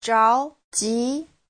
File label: "zhao2ji2", Chinese; 着急; (tính từ) lo lắng